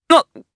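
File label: Vox Sur Japanese; Lucias; kingsraid; jp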